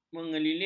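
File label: "mengelilingi", Malay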